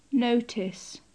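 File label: "notice", British English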